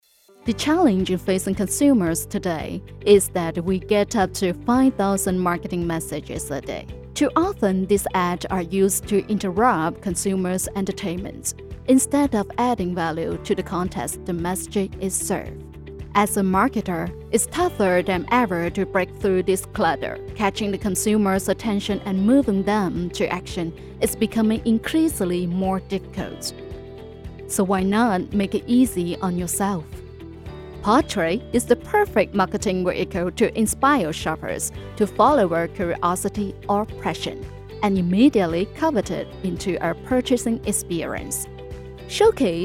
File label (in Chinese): 英文